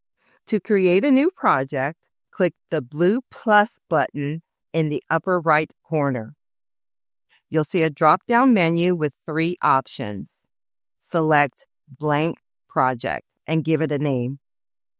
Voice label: eLearning